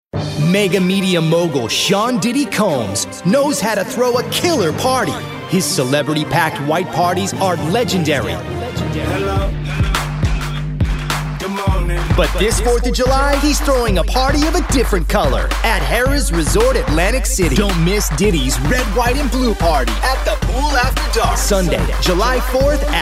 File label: High Energy